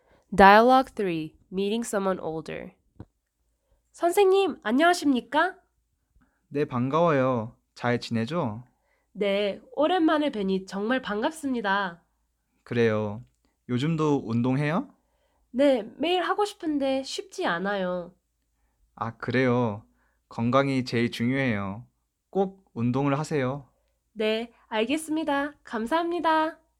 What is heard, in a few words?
Dialogue Meeting Someone Older